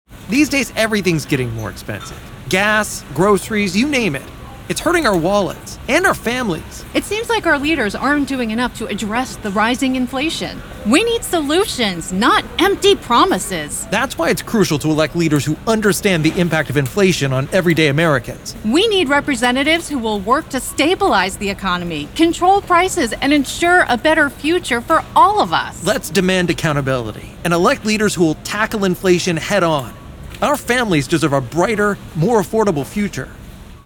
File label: Mission Driven Political Ad VO